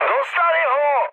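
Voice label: aircraft destroyed, Czech, mood high; speech; War Thunder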